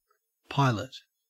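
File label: "pilot", Australian English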